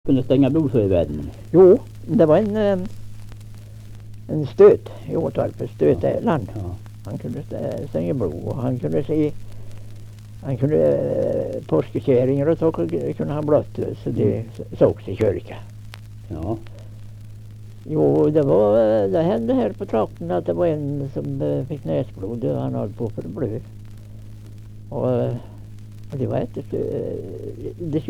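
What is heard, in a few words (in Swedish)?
Nysund Närke, dialekter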